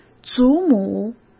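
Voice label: "祖母", Mandarin Chinese; chinese flashcards; hsk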